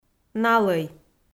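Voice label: "налӧй", Komi, female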